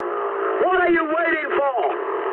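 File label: English attack position mood high; War Thunder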